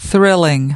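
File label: "thrilling", English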